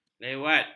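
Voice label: "lewat", Malay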